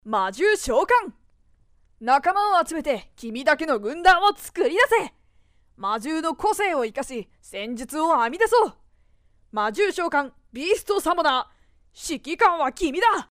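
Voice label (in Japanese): ナレーション「ゲーム宣伝」; 魔獣召喚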